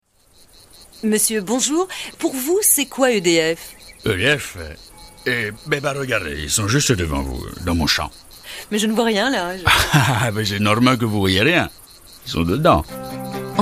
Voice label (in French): voix styles; EDF; les 2 voix homme